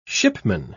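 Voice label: "shipman", British English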